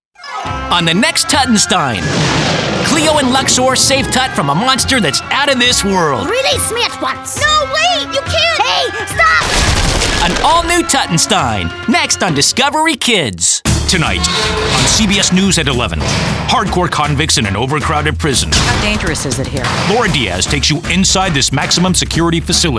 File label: Promo